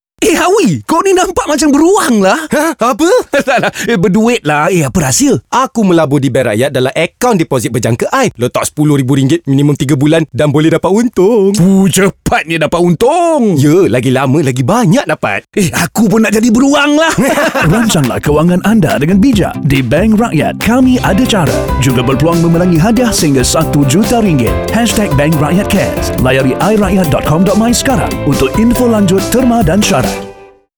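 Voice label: Malay; RAKYAT; AKAUN DEPOSIT BERJANGKA-i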